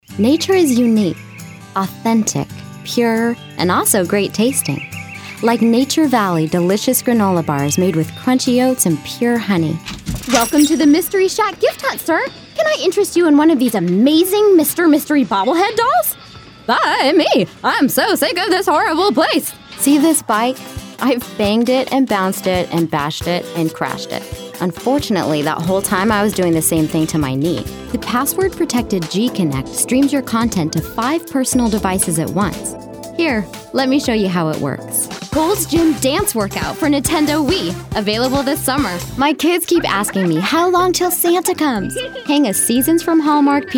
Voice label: Commercial